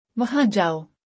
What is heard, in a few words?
Go there - urdu; Simple Commands